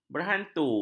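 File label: "berhantu", Malay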